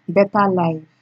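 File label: "beta life", Nigerian Pidgin, female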